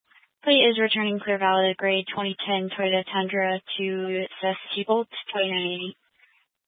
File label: Sheriff Dispatch